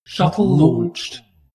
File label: spaceship female status report